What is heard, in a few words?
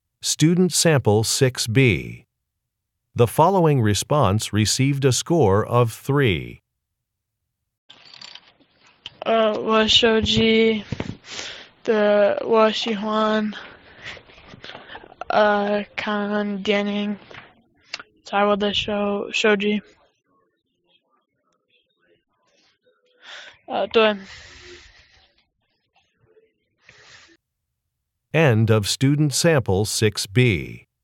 chinese conversation